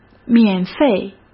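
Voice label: "免费", Mandarin Chinese; chinese flashcards; hsk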